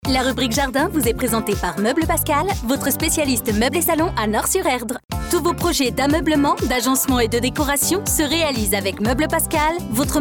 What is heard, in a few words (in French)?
comédienne voix-off; MEUBLES PASCAL Production finale